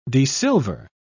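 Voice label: "desilver", British English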